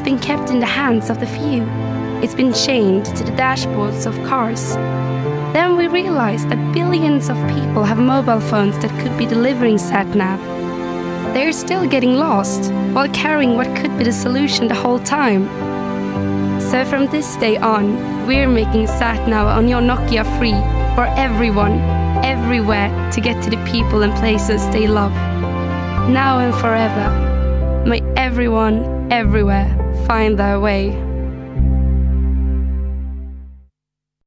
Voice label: female swedish english with swedish accent tv promo; nokia